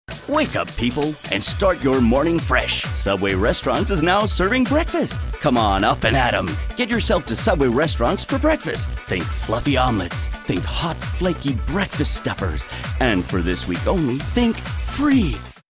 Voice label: upbeat

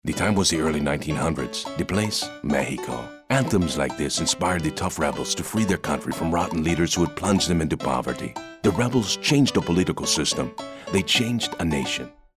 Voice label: spanish dialect

